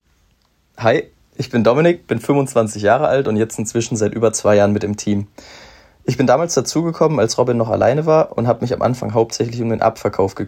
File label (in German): sprachnachricht